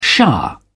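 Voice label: "shah", British English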